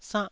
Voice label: "sannh1", taiwanese syllable